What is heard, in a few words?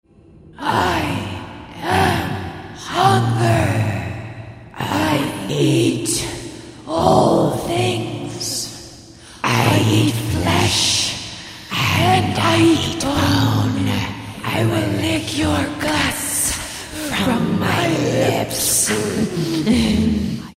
Evil Character